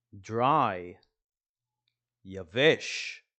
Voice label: "dry" – "יבש"